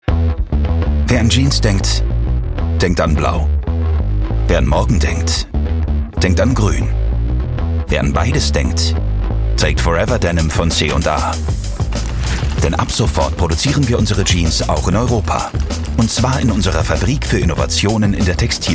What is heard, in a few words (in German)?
Werbung, German; C&A